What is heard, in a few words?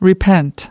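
"repent", English word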